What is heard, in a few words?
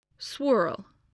"swirl", spelling